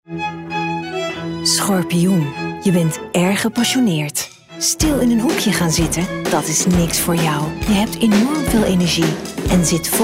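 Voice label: Dutch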